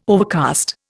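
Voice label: "overcast", Indian English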